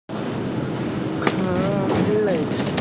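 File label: "ke3le4", Mandarin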